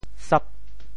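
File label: "sap8", Teochew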